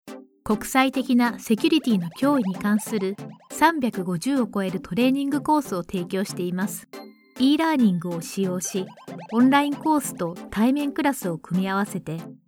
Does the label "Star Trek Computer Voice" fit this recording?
No